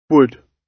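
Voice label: "would", British English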